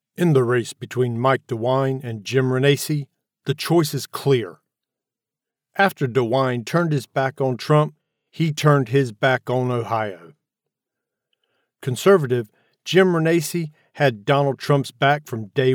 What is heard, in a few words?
ohio political